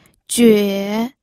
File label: "jue3", pinyin